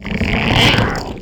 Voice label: Zombie